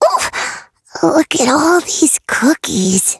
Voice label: touch, en; Cookie Run